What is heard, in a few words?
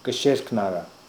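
"Ksherknara", pronunciations; Fourth Way; Beelzebub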